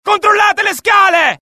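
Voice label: alert, Italian; mohaa; dialogue; sighted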